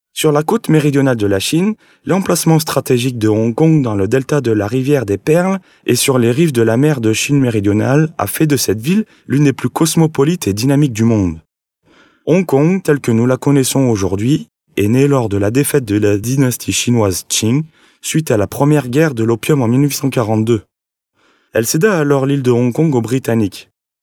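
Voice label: French male corporate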